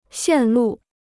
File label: "线路", Chinese